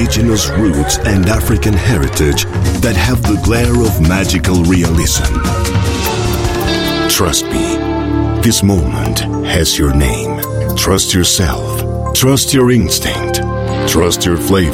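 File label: Colombian Spanish English with Accent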